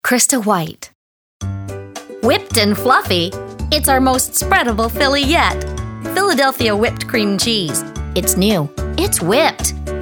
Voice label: commercial female